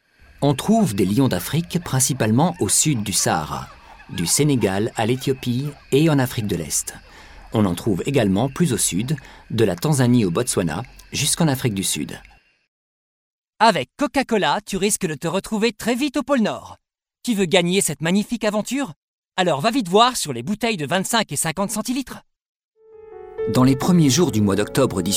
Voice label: French

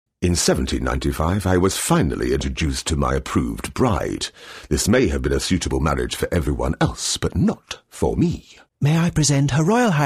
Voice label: English UK

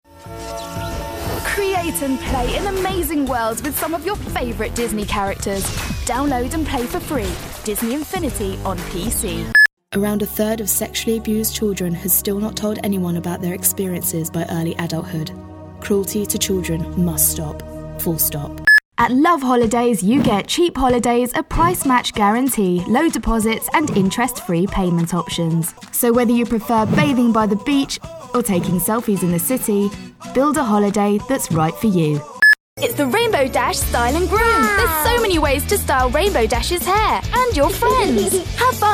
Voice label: English UK

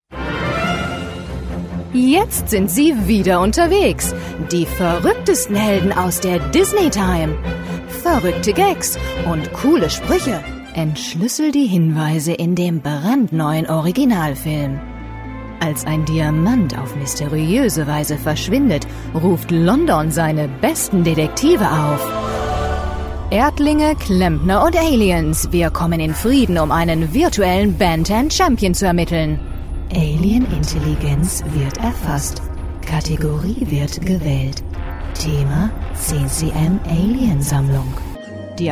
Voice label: German

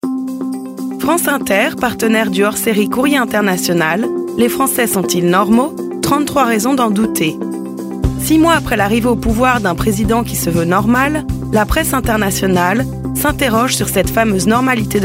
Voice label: French

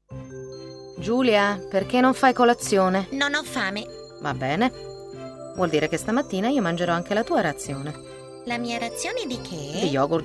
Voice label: Italian

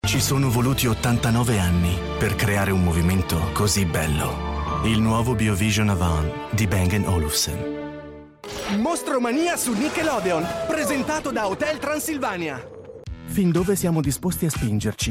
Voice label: Italian

